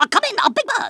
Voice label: "orders", Kamikaze; Worms Armageddon